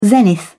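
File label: "zenith", British English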